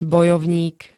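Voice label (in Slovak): "bojovník", Slovak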